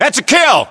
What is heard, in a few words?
inform killfirm infantry, US